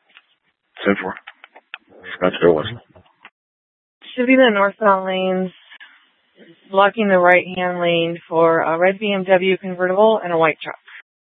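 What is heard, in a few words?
police dispatch, Scottsdale PD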